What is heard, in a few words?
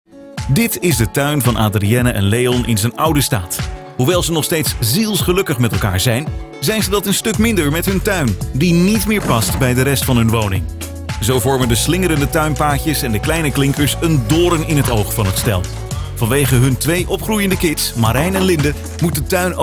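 Dutch, female, neutral; Fremdtake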